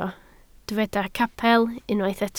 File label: "capel", Welsh